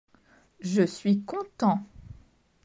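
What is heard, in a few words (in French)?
"Je suis content", French; grammaire; la negation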